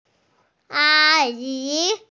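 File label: "a1yi2", Mandarin Chinese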